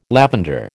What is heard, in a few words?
"lavender", American